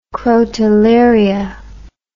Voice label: "crotalaria", english

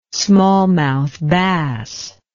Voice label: "smallmouth bass", English

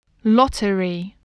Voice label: "lottery", spelling

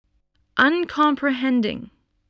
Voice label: "uncomprehending", English spelling voice

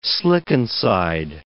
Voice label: "slickenside", English

